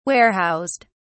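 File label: "warehoused", English, British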